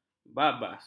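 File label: "babas", Malay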